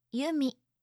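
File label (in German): "yumi", japanische Mädchennamen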